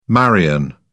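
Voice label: "marian", English, British English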